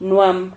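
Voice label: "nuam", Spanish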